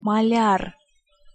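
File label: "maljar", Russian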